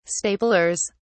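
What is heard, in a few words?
"staplers", British English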